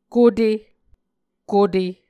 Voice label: "kote", Muscogee